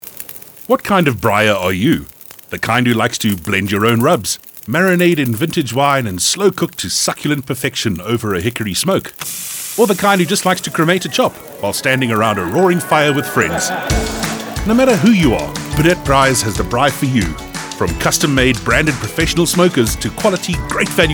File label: Radio Ad; Breedt Braais